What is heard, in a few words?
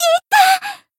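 damaged, Japanese; millecha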